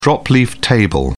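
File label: "drop-leaf table", British English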